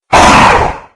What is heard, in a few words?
hellknight pain; slender